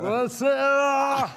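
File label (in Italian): "buonasera" Italian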